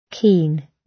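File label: "keen" British; Flora and fauna, agriculture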